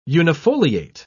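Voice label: "unifoliate", British English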